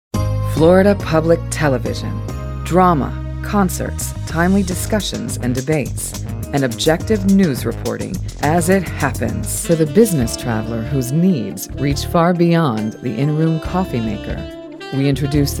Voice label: Commercial